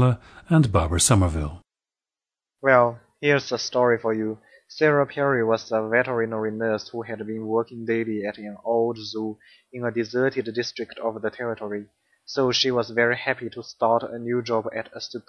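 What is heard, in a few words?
jiangsu, dialects of English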